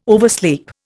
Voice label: "oversleep", Indian